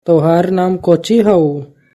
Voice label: Tohar naam kauchi hau/ haba?, Magahi; What is your name?

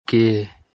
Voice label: "Ke", Dogri; What

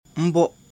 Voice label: "Umbo", Lotha; She

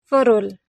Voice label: "Pharol", Gondi

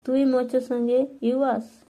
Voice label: Tui mocha sange uvas, Halbi

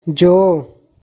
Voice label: "Jo", Magahi

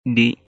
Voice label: "Dih", Khasi; Drink

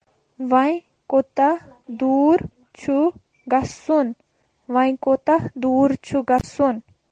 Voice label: Kashmiri; How much distance to go?; Wyan kootah door chu gachun?

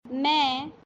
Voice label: "Main", Braj Bhasha

